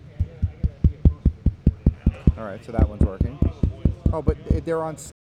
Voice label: Male Voice; NYCC 2013